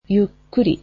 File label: slowly, japanese